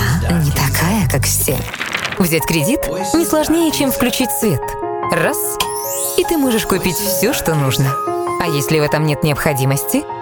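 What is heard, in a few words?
Russian, voice over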